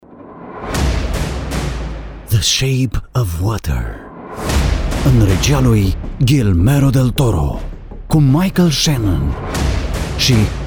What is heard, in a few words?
Promo; The shape of water